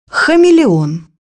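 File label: "chameleon", Russian; bukvar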